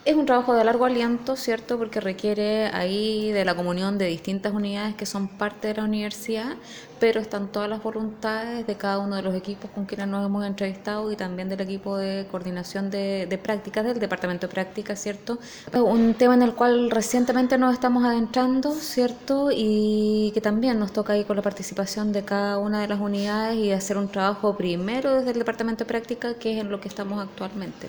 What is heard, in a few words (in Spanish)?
cuña